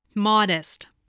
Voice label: "modest", voice, spelling

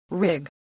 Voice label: "rig", English spelling

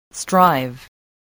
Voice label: "strive", spelling

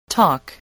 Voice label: "talk", English

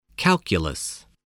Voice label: "calculus", spelling

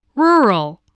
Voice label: "rural", English spelling

